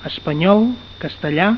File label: "spanish", catalan